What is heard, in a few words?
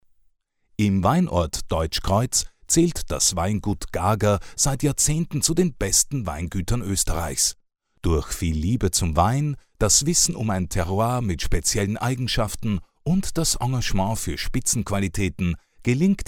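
German male voice over, narrative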